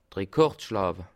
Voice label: "rekord", alsace

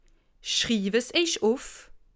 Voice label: "schriiwwe", alsace

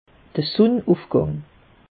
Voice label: "sunne ufgang", alsace; meteo

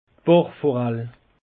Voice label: "bachforall", alsace; peche

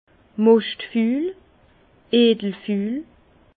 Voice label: "moschtful", Bas Rhin; vin; pourriture noble

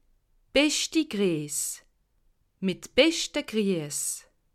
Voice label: "gries", alsace

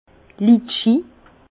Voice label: "litchis", Bas Rhin

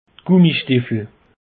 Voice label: "gummistiffel", alsace; peche

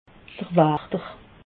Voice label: "wachter", alsace

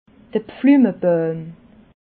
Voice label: "pflumebauim", alsace

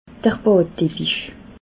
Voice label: "baddeppig", alsace

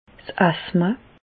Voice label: "asthma", Bas Rhin; medecine; asthme

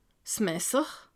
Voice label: "messer", alsace

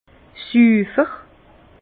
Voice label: "sufer", Bas Rhin; vin; propre

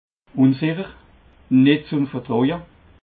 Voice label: "unsicher", alsace

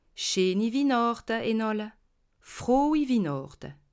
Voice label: "wihnachte", alsace

